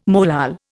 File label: "molal", Indian